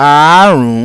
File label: "Aarun-un", Yoruba